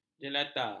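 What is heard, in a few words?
"jelata", Malay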